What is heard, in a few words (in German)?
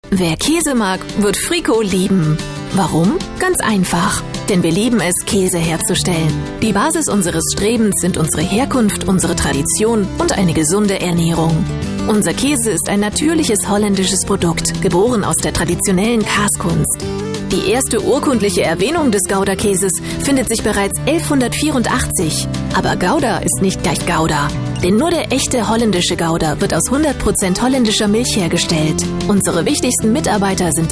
Infomercial; NRW